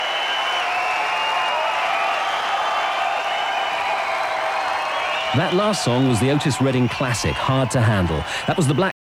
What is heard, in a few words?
Radio announcer; Sheffield City Hall 91